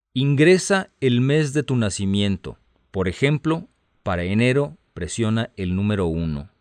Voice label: Spanish, hotline